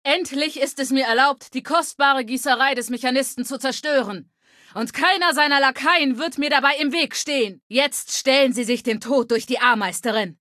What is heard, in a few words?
Female adult default greeting